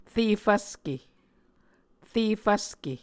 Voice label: "re fvske", Muscogee